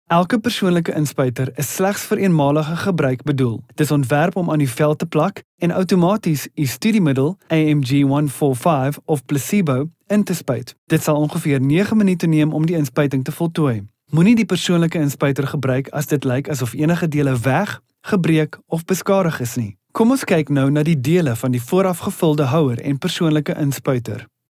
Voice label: AFRIKAANS